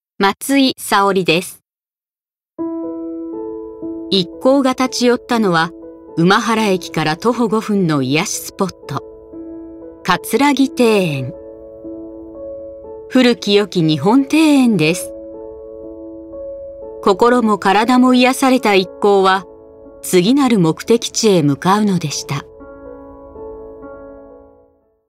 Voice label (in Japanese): ノーマル